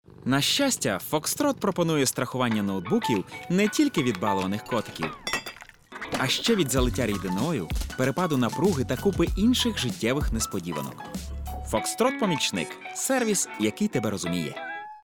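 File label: ukrainian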